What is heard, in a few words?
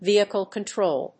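"Vehicle Control", English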